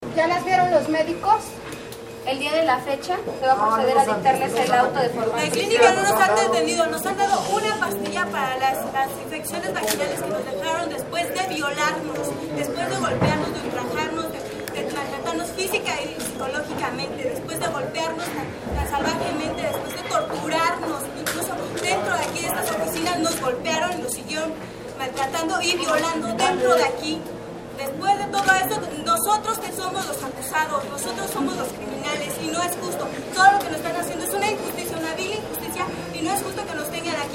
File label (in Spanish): mujer; presas del penal de santiaguito; violaciones